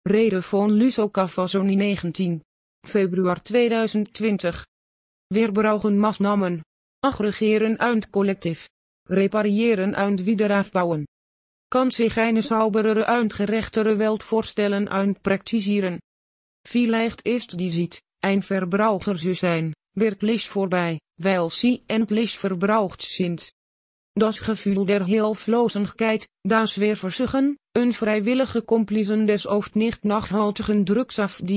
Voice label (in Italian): Tedesco